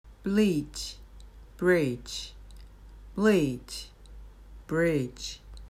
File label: "bleach breach", English